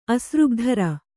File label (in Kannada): "ಅಸೃಗ್ಧರೆ", Kannada